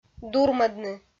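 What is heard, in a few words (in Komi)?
"дурмӧдны", female; kpv; audio dict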